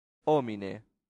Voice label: "homine", latin; santillana